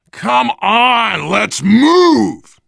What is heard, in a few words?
move on, voice, biker; Left 4 Dead 2; left4dead2 dlc3; survivor